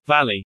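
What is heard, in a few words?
"valley", English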